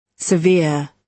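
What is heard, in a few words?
"severe", British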